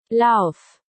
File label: go in german dog commands